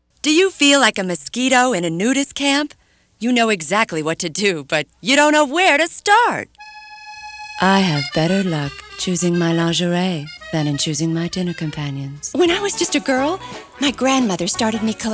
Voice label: Commercial, Female voices